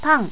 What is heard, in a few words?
"pan", japanese